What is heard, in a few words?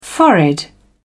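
"forehead", British English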